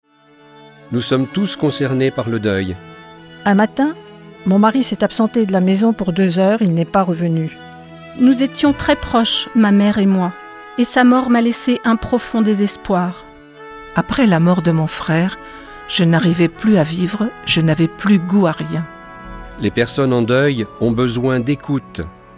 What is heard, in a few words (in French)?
Spot radio